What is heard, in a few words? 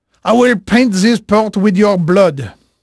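French male, voice; Pirates Of The Caribbean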